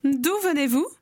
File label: conversation